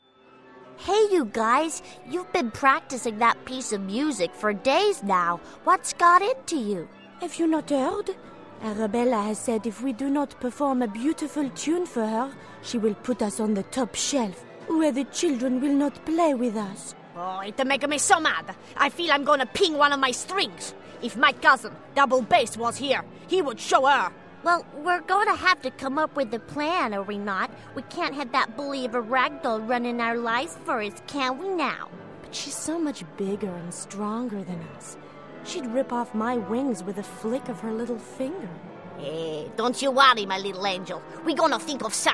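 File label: animation; 20s-40s. Mid-tone. Strong, thoughtful and calm. RP. Fluent in Arabic.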